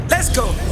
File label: Lets Go, vox